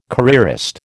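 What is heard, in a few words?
"careerist", American English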